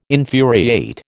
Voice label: "infuriate", American

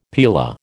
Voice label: "paella", American

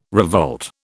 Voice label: "revolt", British

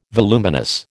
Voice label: "voluminous", American

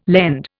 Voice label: "lend", Indian

